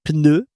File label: "pneu", French